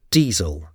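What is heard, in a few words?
"diesel", English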